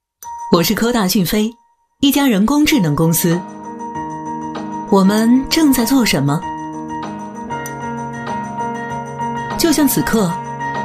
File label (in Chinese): 女 宣传片 中国人工智能; 科大讯飞